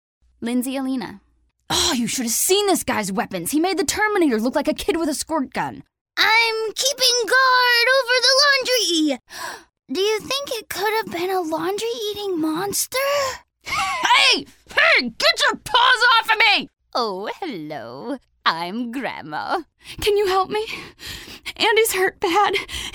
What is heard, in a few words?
animation